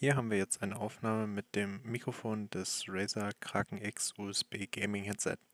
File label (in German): Razer Kraken X USB Headset Testaufnahme